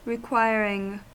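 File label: "requiring", US English; pronunciations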